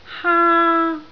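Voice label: "haa1", Cantonese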